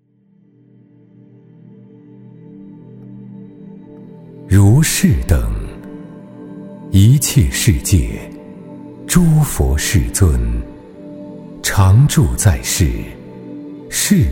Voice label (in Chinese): 男 寺庙文化 空灵; 中英双语; 大气浑厚; 法音透传